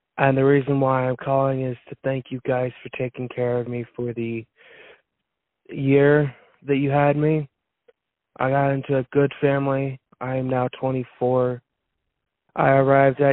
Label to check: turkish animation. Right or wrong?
Wrong